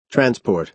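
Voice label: "transport", American English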